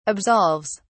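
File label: "absolves", British English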